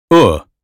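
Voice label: American phonetics sound foot; 英语音标发音（剑桥版）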